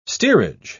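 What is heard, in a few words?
"steerage", British English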